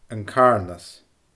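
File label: "An Càrnas", Scottish Gaelic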